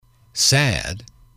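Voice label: "sad", English, male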